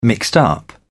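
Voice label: "mixed up", British English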